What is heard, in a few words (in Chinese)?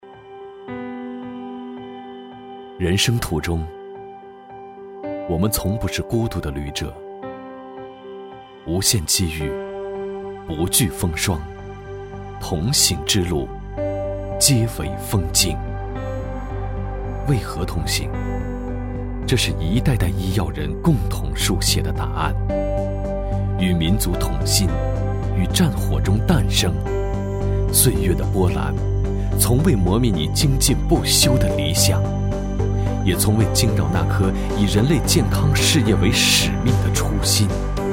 男 专题; 中国药科大学